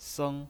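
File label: "seng", Mandarin Chinese pinyin voice, first tone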